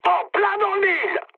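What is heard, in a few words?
French bomb success mood high; War Thunder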